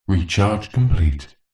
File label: science fiction computer voice says recharge complete; zapsplat